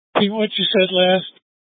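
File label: Incident Tactical, scanner audio recording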